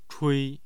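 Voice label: "chui", Chinese, first tone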